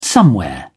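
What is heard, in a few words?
"somewhere", British English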